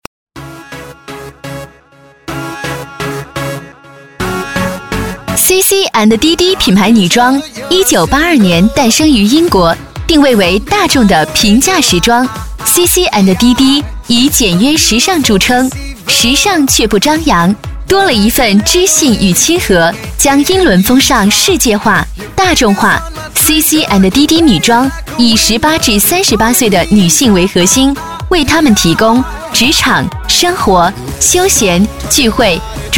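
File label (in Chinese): 女S112 国语 女声 广告-品牌女装 时尚明快 积极向上 神秘性感 调性走心 亲切甜美; CC&DD品牌女装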